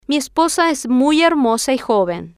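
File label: mi Esposa Es Muy Hermosa Y Joven, Spanish, female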